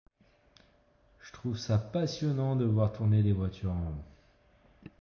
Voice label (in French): course de voiture ironie